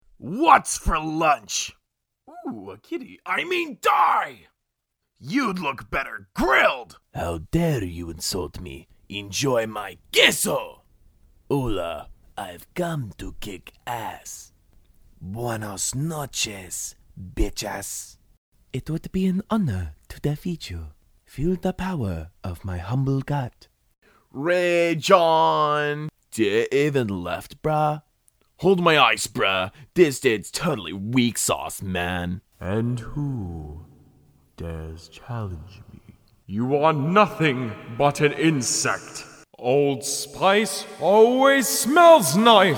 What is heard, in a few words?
Animation Video Game Character Scratch